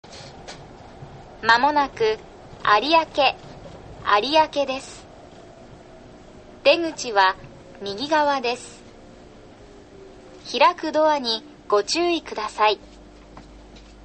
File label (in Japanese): 国際展示場正門発車後, 車内放送; 有明到着前; yurikamome